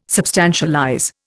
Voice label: "substantialize", Indian